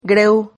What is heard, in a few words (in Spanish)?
"greu", Silabas